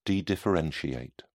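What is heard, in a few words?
"dedifferentiate", British English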